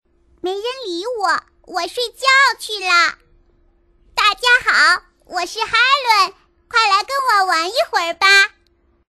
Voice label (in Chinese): 女, 童声, 可爱小女童